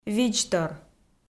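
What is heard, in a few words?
"видзтор", Komi, female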